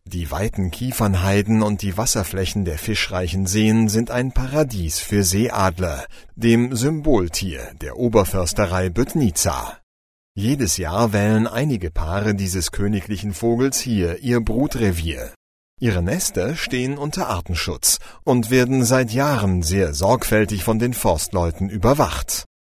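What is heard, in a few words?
German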